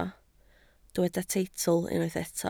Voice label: "teitl", Welsh

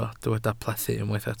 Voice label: "plethu", Welsh

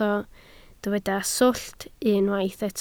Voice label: "swllt", Welsh

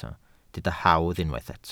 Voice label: "hawdd", Welsh

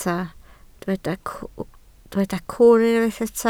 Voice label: "cwwn", Welsh

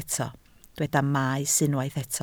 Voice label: "maes", Welsh

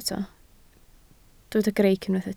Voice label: "greic", Welsh

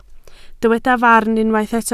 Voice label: "farn", Welsh

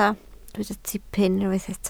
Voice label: "tipyn", Welsh

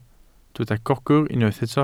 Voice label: "gogr", Welsh

